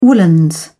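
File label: "woollens", British English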